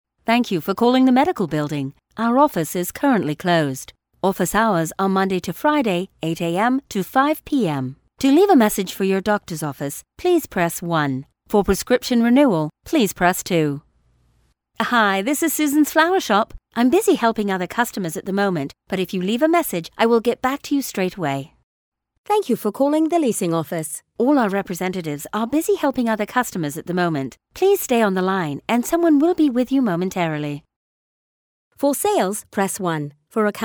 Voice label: Telephony British